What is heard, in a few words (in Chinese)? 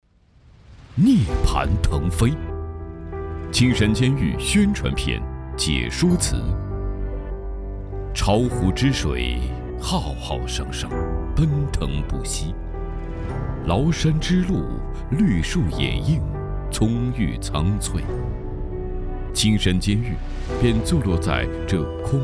男 宣传片; 涅槃腾飞